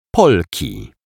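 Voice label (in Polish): "Polki", Polish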